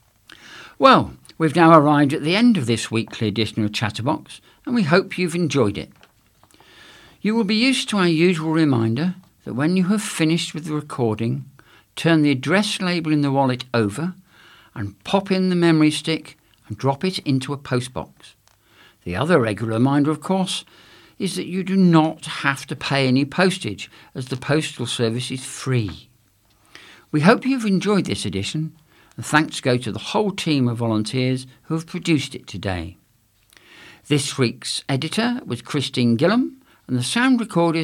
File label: Closing Announcement